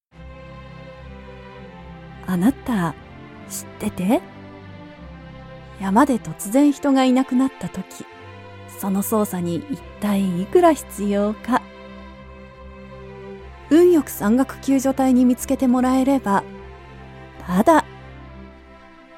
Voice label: japanese narration